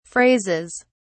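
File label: "phrases", English, British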